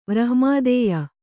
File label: "ವ್ರಹ್ಮಾದೇಯ", kannada